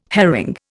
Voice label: "herring", Indian